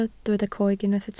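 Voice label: "coeg" Welsh, spoken word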